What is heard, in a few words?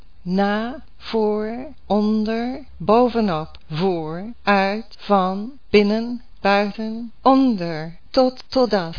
dutch words